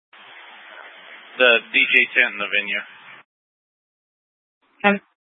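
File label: Incident Tactical 3, Scanner audio recording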